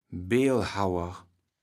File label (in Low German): "Beelhauer", kaevels platt